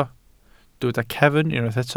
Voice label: "cefn", Welsh

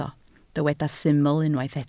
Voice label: "syml", Welsh

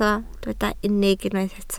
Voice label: "unig", Welsh

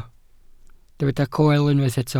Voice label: "coel", welsh

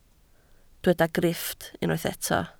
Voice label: "grifft", Welsh

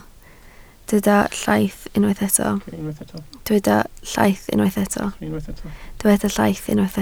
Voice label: "llaith", Welsh

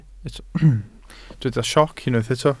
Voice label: "sioc", Welsh

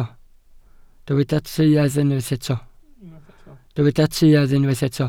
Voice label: "tuedd", Welsh

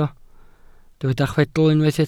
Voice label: "chwedl", Welsh

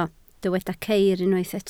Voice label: "ceir", Welsh

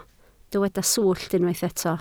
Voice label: "swllt", Welsh

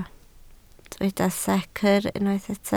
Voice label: "secr", Welsh

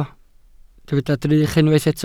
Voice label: "drych", Welsh

